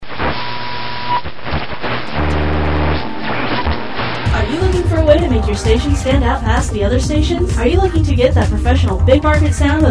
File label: Pro Voice Imaging